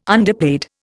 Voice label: "underpaid", Indian